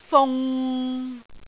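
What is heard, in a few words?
"fung6", Cantonese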